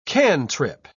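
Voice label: "cantrip", British English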